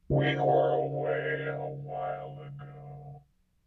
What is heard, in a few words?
xsynth speech mod gong